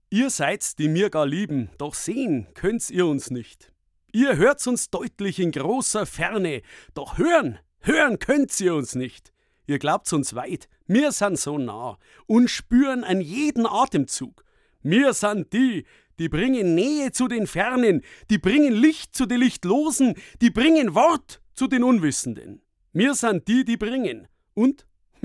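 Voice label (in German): bayrisch, Sprecher, Männer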